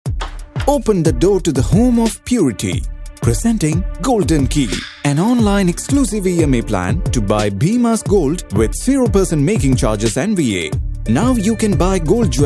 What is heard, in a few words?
Indian English Explainer